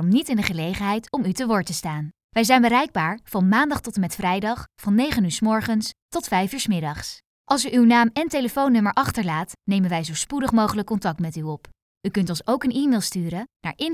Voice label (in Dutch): Voicemail; Body Skin Instituut